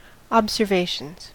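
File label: "observations", US English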